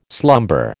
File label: "slumber", American